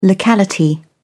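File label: "locality", English, British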